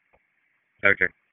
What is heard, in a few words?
FIRE OPS, p25; wake